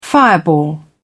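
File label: "fireball", British English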